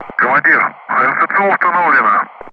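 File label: Speech Russian; Ground Crew; HMS installed; DCS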